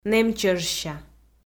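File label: "нэмчӧжся", Komi, female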